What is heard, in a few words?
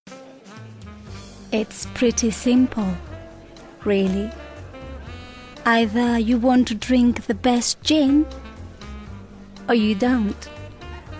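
Spanish, female, English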